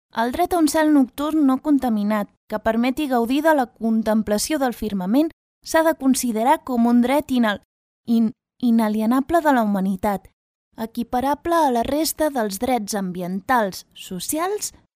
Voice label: catalan character, Catalan Barcelona Female Voice